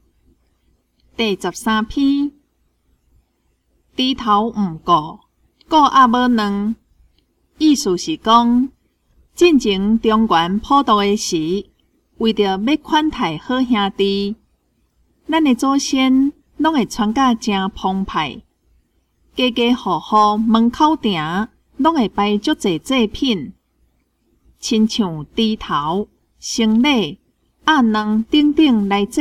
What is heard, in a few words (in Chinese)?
文章朗讀; 閩南語; 閩南語語言能力認證; 金安咱來考C